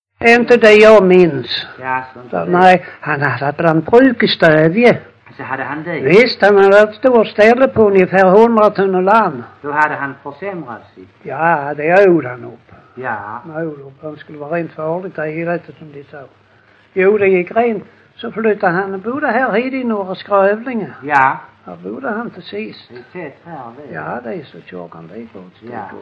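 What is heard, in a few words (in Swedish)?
Lackalänga Skåne, Svenska sagor dialekter; Folkminnen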